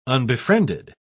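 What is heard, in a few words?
"unbefriended", British English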